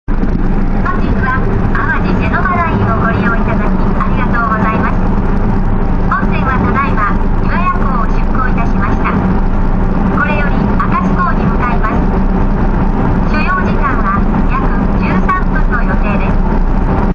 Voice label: ship announce; awaji